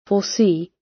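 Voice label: "foresee" British; Space, the planets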